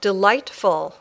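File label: "delightful", English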